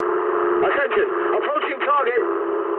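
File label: English near waypoint mood medium; War Thunder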